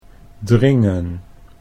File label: "dringen", Dutch